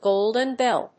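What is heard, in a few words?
"golden bell", English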